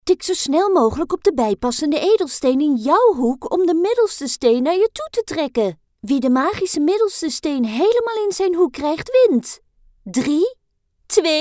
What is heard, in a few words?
Dutch video games